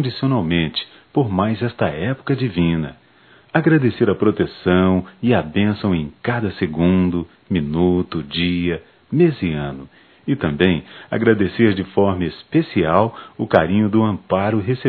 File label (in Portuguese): Ano novo para pais, masc; Natal e ano novo; Telemensagens 2017